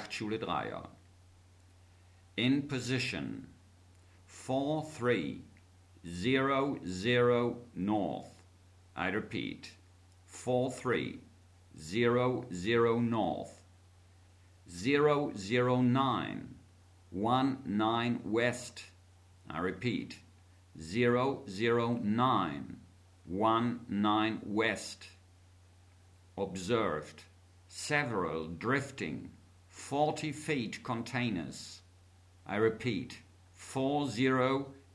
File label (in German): Seefunktext